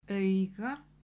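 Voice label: "Auga", Icelandic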